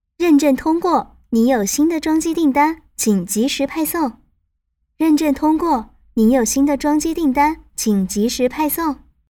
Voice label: 女 系统提示音 温柔; 女声广播提示

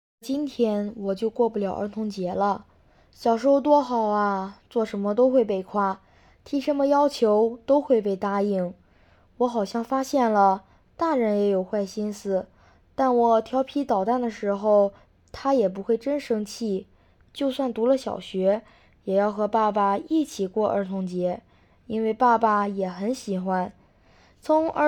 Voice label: 男童试音; 真童配音

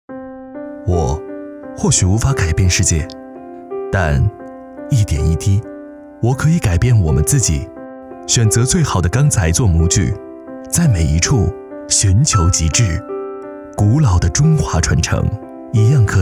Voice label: 男国 微电影; 传承不同; 微电影配音

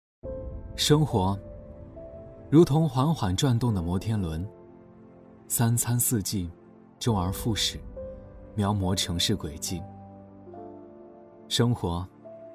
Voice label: 男 素人 生活; 素人风格男声

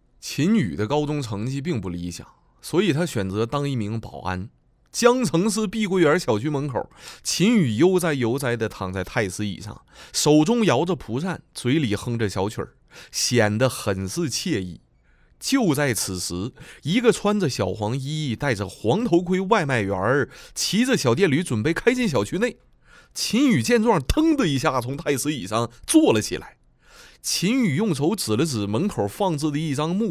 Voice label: 东北方言 男 东北话旁白; 方言配音

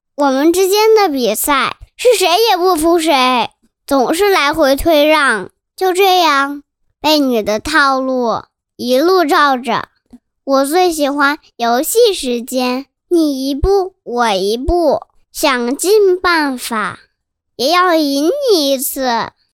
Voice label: 男 男真童; 爸打一架; 真童配音

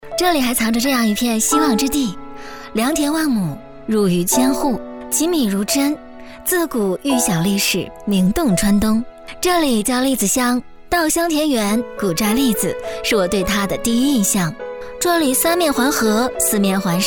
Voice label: 女 独白介绍 素人女声介绍 轻松自然; 文旅配音; 抖音独白介绍

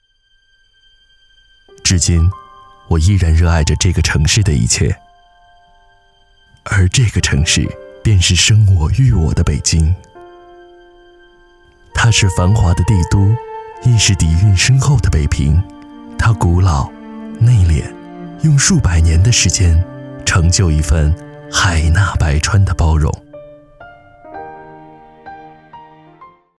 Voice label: 男国 专题; 城市有光; 微电影配音

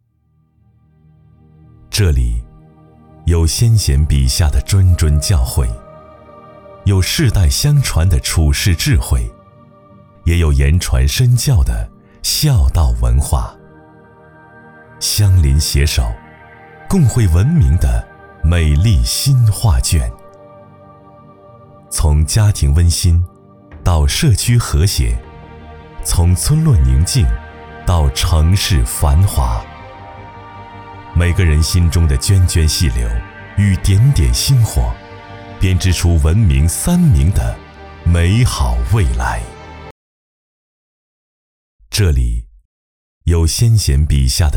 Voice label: 男国 城市名片; 三明

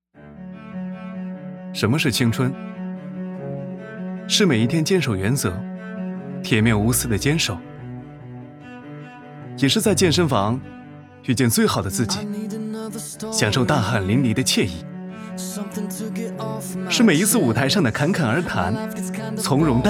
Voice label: 男, 银行宣传样音; 邮储银行

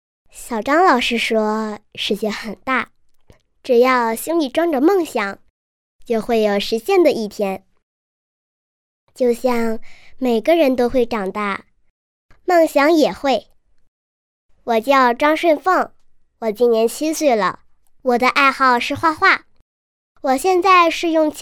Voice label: 女 女真童; 真童配音; 会长大的梦想了不起

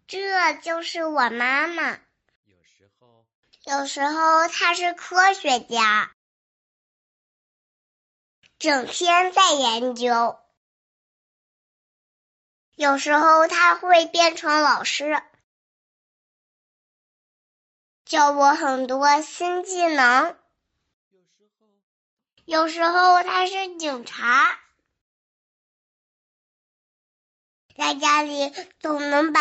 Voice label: 男 女真童 4.5岁; 真童配音

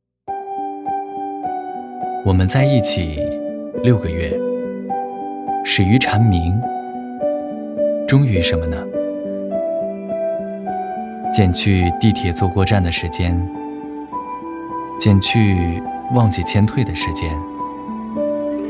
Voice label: 男 独白 清澈忧伤; 素人风格男声

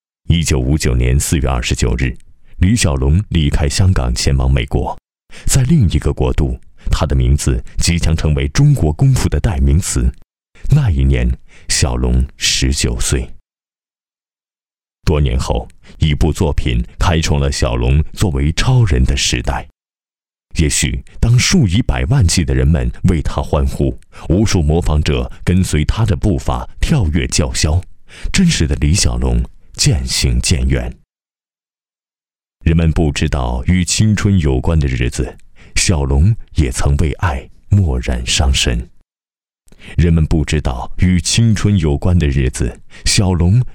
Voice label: 男国 纪录片; 电影传奇李小龙片段

